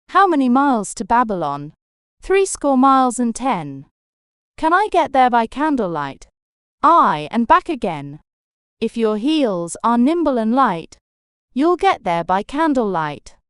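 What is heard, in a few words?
female British; How Many Miles to Babylon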